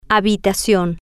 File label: "habitacion" Spanish